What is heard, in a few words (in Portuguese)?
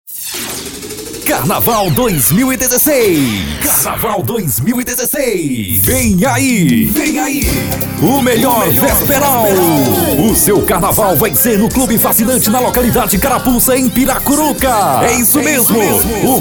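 Voz Jovem, Masculino, Português - Brasileiro; Carnaval Clube Fascinante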